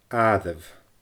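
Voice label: "aaireamh", Scottish Gaelic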